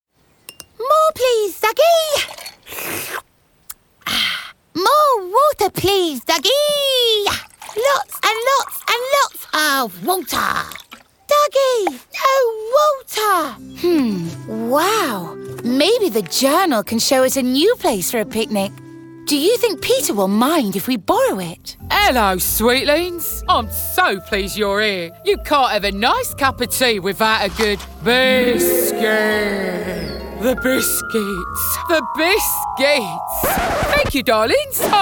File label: animation